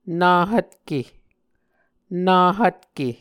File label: "na hvtke", Muscogee